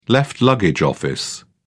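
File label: "left luggage office", British English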